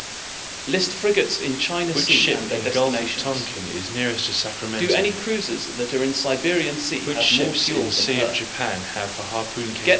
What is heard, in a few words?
mixture roomC SNR10 azim15 left